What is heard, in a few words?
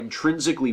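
"intrinsically", US English; pronunciations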